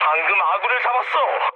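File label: Korean player killed ally mood low; speech; War Thunder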